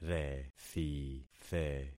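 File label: "rethithe", concatenated syllables; Affixing experiment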